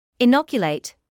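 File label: "inoculate", British English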